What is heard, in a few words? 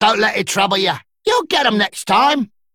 Male Dredger Combat Loss